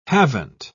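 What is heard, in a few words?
"haven't", British English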